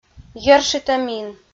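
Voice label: "йӧршитӧмин", Komi, female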